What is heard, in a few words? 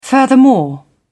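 "furthermore", British English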